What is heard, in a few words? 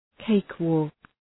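"cakewalk", English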